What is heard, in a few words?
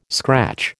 "scratch", American